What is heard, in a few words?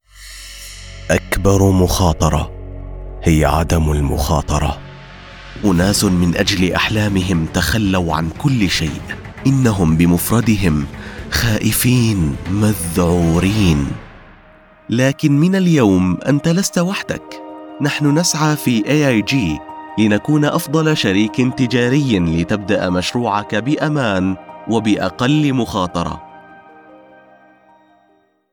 Corporate Dangerous Standard Arabic; AIG